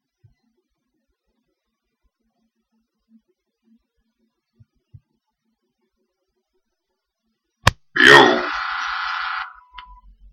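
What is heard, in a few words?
dialect collection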